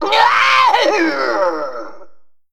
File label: Male Mech Death